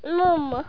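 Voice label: "mummo", Finnish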